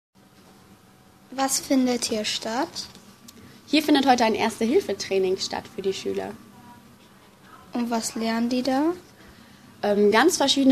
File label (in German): Sprachmemo